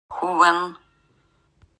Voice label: "Хувэн", орочский; Тайга

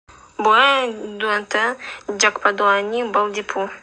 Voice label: НАНАЙСКИЙ Мы живем рядом с тайгой; Тайга; Буэ дуэнтэ дякпадоани балдипу.

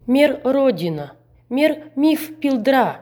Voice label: Аудиофайл предложения нихвский

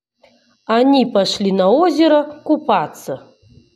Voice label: предложение на русском

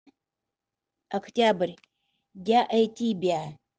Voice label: октябрь, удэгейский

